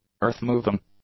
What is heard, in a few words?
"earthmoving", English; adjectives